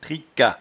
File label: "tricat", patois jura